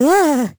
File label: vo confused; Crypt of the NecroDancer